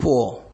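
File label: "pour", Nigerian Pidgin, male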